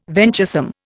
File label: "venturesome", Indian